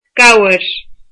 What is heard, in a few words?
"goat", Irish